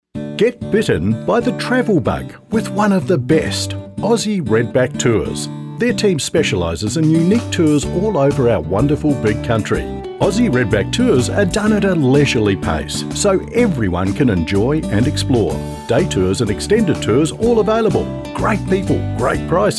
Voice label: Radio ad; New Curtain